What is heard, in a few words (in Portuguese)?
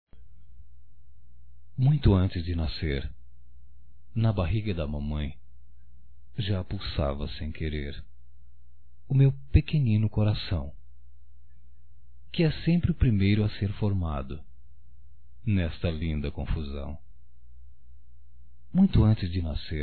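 DIA DAS MAES UMBIGUINHO, masculino; Telemensagens 2017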